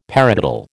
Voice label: "paradiddle", American